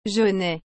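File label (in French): "jaunet", French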